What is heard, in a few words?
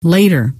"later", scientist female